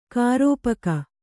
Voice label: "ಖರಪಾಕ", Kannada